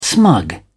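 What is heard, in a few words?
"smug", British English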